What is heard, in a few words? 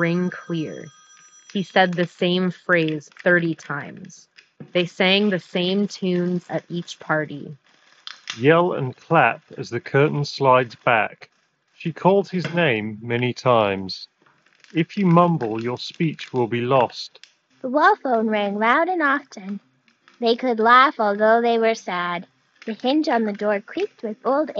Office microphone sample; Razer Barracuda